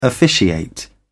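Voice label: "officiate", British English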